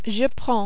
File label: "je prends", French